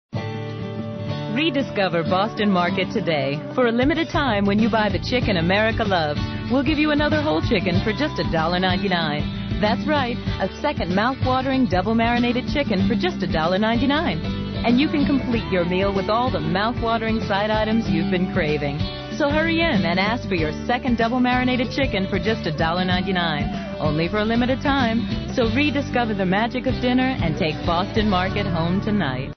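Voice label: English US; Commercials; Female; English (American)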